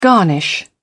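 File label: "garnish", British English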